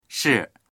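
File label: "shi4", Mandarin Chinese; 是; ～だ、～である; dictionary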